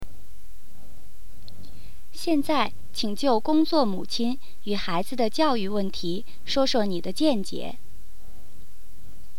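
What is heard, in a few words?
Mandarin/Putonghua; 普通话提示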